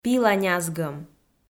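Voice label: "пила нязгӧм", Komi, female; audio dict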